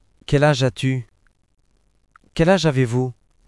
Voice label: Asking for age in French